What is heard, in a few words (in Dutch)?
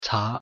"茶", Nánjing; thee-in-andere-talen